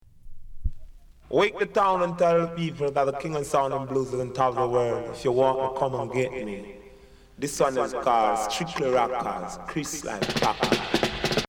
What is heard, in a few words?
VOCAL INTRO